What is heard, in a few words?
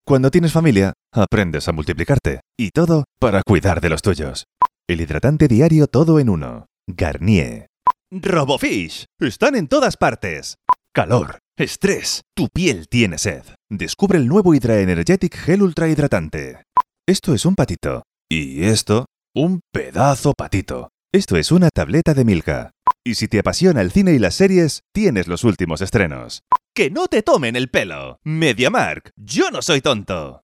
Commercials